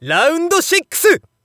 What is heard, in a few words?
Narration ROUND